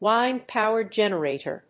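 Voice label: "wind power generator", English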